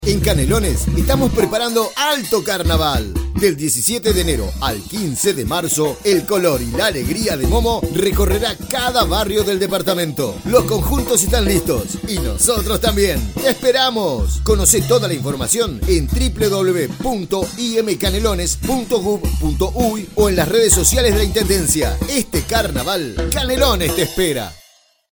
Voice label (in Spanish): carnaval radio; carnaval canelones